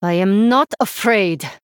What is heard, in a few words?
Female Draenei; Shadow Priest Xyrella